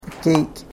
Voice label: "keek", karuk